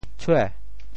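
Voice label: "tshue2", Teochew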